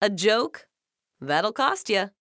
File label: negative vocalization; redsun; skins; kinessa; sniper